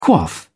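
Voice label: "quaff", English, British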